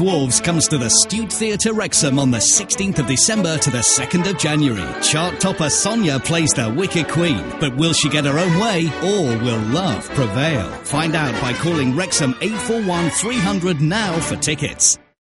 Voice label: panto vo